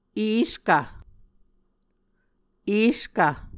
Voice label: "eskv", Muscogee